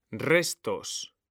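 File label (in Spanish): "RESTOS", Spanish; mekanta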